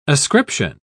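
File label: "ascription", British English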